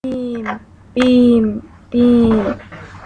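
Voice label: "beam", spoken word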